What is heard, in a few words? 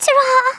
"bye bye", Scouser